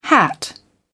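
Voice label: "hat", British English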